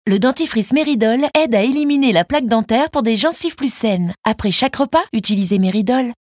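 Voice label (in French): tonique souriante, voix off féminine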